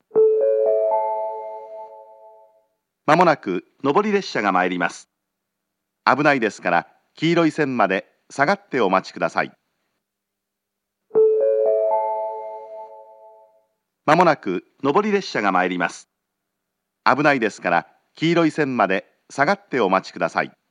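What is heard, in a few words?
platform 3 approaching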